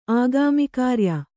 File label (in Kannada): "ಆಗಾಮಿಕಾರ್ಯ", Kannada